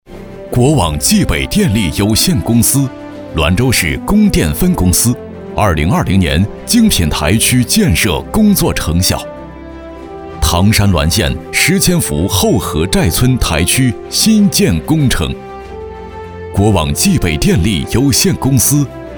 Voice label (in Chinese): 男, 专题, 供电